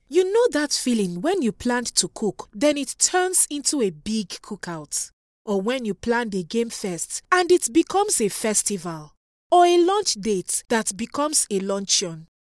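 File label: African English, General; GoLocalise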